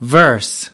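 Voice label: "Verse" US English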